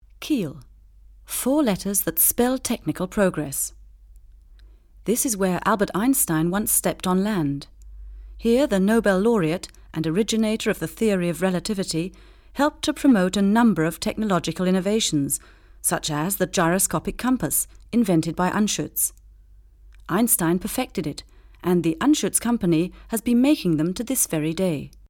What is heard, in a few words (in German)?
uk englisch